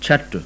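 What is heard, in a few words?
voiceless aspirated palatal, sindhi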